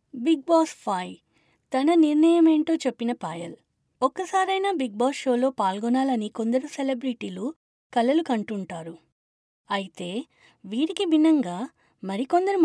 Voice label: female telugu voice over narrative